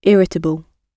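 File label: "irritable", British English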